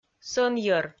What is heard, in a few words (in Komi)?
"сӧнйӧр", Komi